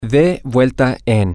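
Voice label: turn on X, Spanish, male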